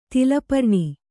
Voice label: "ತಾಲಪರ್ಣಿ", Kannada

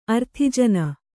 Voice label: "ಆರ್ತಜನ", Kannada

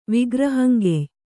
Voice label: "ವಿಗ್ರಹಂಗೆಯ್", kannada

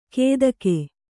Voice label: "ಕೇದಗೆ", Kannada

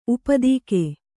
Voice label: "ಊಪೋದಿಕೆ", kannada

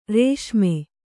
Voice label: "ರಾಸ್ಮೆ", kannada

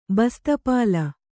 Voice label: "ಬಸ್ತಪಾಲ", Kannada

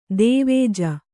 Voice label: "ಧ್ವಜ", Kannada

